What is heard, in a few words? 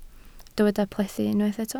"plethu", Welsh